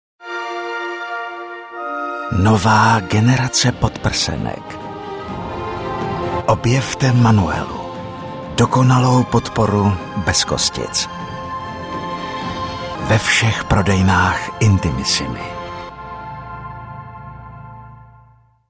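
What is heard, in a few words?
Male Czech commercial mix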